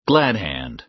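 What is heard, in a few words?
"glad-hand", American English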